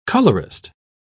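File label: "colorist", British English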